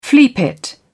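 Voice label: "fleapit", British English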